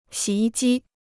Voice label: "洗衣机", Chinese

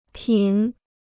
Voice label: "品", Chinese

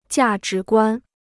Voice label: "价值观", Chinese

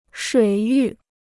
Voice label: "水域", Chinese

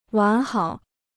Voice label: "完好", Chinese

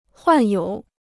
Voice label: "患有", Chinese

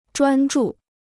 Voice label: "专注", Chinese

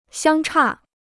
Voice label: "相差", Chinese

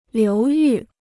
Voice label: "流域", Chinese

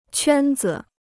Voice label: "圈子", Chinese

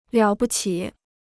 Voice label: "了不起", Chinese

